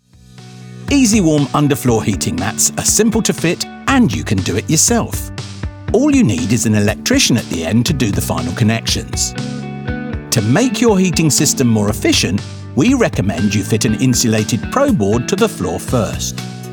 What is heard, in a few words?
corporate